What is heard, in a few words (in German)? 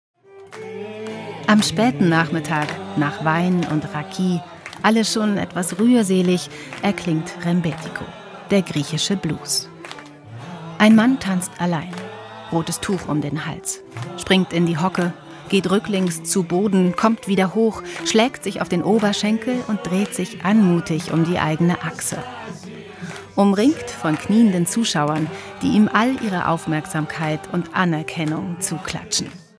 kommentar radio, sprecherin